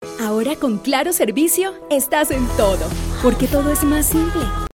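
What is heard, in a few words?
Latin American Spanish, Commercial; GoLocalise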